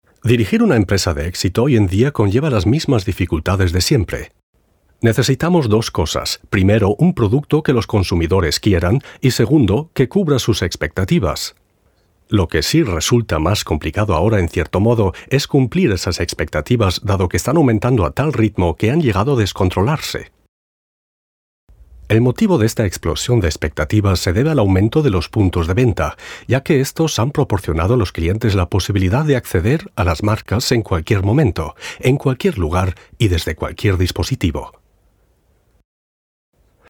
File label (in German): Produktfilm software